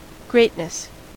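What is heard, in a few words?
"greatness", US English; pronunciations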